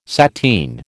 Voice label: "sateen", American

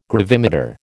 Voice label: "gravimeter", American

